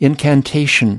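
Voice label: "incantation", US English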